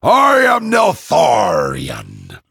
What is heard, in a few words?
male dragon